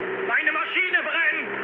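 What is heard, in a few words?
German on fire mood low, speech; War Thunder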